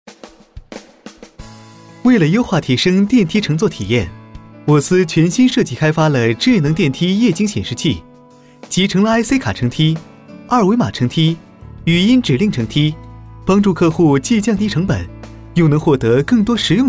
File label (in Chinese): 智能讲解